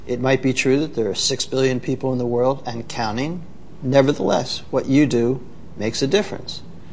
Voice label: what you do makes a difference, MALE MISC and SPOKEN WORD